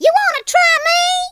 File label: "i'll get you", Cowgirl; speech